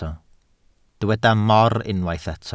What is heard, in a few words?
"mor", Welsh